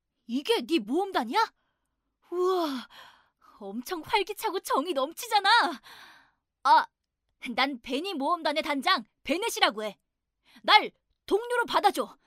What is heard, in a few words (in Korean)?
게임 소년 활기찬 모험을 좋아하는